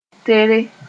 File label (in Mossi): "tere", Moore